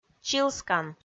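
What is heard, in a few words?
"чилскан", Komi, female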